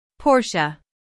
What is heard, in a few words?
"Porsche", US; IPA: /ˈpɔːr.ʃə